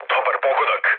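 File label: Serbian bomb success mood low, speech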